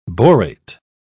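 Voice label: "borate", British English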